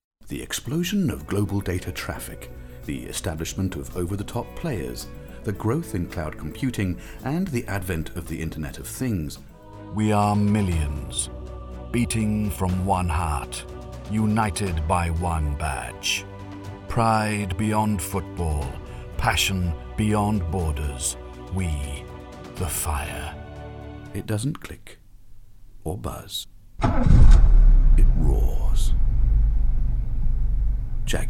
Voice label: british, voice